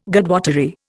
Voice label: "Godwottery", Indian